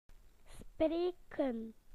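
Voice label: "to speak", British English; PictureDictionary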